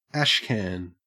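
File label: "ashcan" English, Australian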